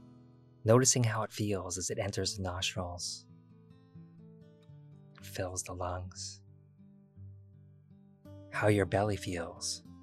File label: body scan meditation with music